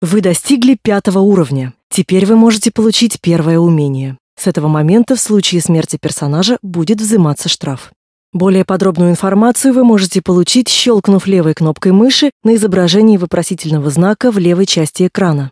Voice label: tutorial voice ru; lineage2